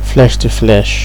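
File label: "flesh to flesh", Nigerian Pidgin, male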